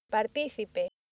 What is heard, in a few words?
"participe", accent; laboratori fonetica; practiques accent